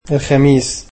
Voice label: "Thursday", egyptian

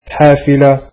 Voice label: "bus", arabic

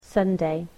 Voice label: "Sunday", english

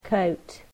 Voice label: "coat", english

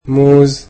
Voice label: "bananas", egyptian

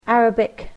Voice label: Arabic

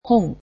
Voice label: books, japanese

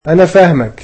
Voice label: "I understand you", egyptian

